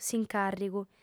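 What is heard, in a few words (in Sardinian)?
"s'incàrrigu", female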